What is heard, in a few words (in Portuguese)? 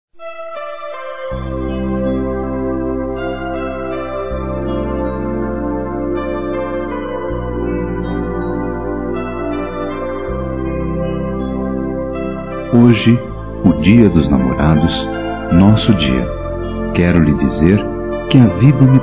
ROMANTICO Masculino; Telemensagens; Dia Dos namorados; Telemensagens 2017